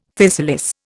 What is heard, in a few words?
"physalis", Indian; Dictionary